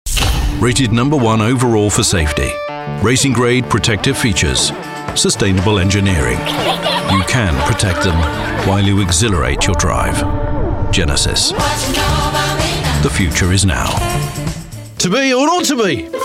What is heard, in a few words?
Commercial British